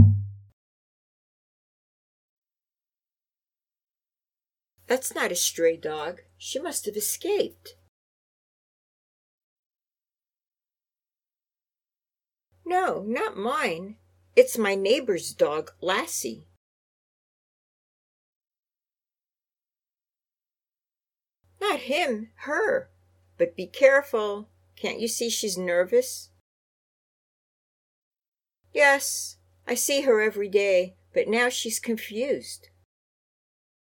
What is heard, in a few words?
Natural Dialog